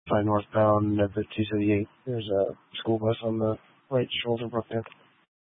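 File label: Dispatch, Scanner audio recording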